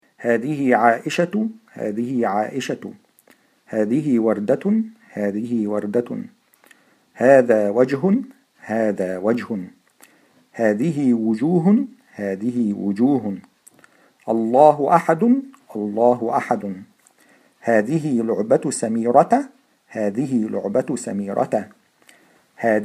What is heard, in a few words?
Pronounce, Arabic